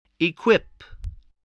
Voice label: "equip", voice, english